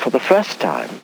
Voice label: LoFi Phrases, LoFi Vocals; Vengeance Samples

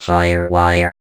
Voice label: Vocoder Words; Vengeance Samples

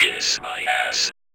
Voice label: Vocoder Phrases; Vengeance Samples

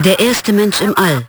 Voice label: LoFi Phrases, LoFi Vocals; Vengeance Samples

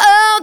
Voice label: Phrase Female; Vengeance Samples; Phrases

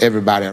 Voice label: LoFi Words, LoFi Vocals

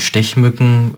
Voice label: LoFi Words, LoFi Vocals; Vengeance Samples; VVE1 LoFi Vocals

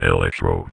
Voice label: Vocoder Words; Vengeance Samples

